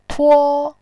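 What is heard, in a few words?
"tuo", Mandarin Chinese, tone 1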